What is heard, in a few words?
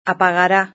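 "apagara", Spanish; verb-conjugator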